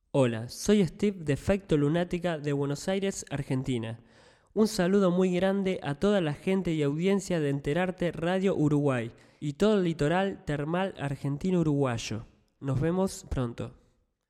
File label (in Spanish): SALUDO RADIO